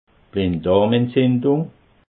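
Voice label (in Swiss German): "blinddarmentzindung", Alsatian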